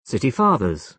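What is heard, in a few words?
"city fathers", British English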